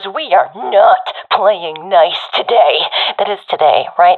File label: round start, professional fem; vo; agents; Source Model Packs; Counter Strike Model Pack; CS2